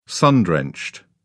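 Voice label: "sun-drenched", British English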